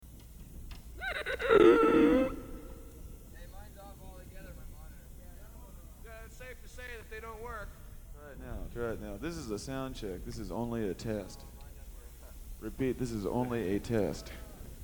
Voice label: Tuning Rap